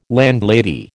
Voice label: "landlady", American

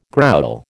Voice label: "growl", American

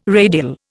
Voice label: "radial", Indian

